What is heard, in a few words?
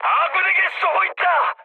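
Korean player friendly fire mood high; War Thunder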